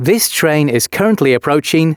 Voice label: announcements male

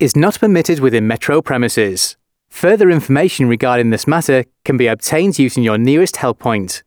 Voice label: announcements, male

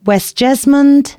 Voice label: announcements, female

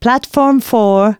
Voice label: female, announcements

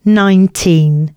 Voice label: announcements, female

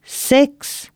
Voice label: announcements female

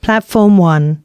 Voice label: announcements, female

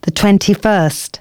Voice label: announcements female

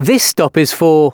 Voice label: announcements, male

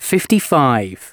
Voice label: announcements male

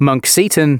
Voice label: announcements male

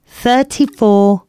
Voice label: announcements female

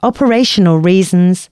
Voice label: announcements, female

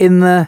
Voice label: announcements, male

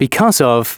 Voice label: announcements male

